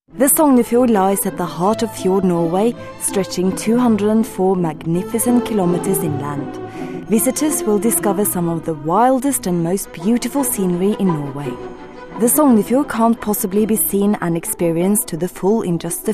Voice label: Norwegian English, Norwegian, Scandinavian, Female, Home Studio, 20s-30s, voiceover